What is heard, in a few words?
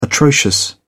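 "atrocious", English, British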